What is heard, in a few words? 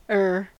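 "er", US English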